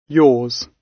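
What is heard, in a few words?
"yours", British English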